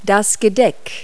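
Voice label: "table setting", English words; Multi-Lingual Talking Picture Dictionary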